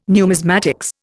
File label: "numismatics", Indian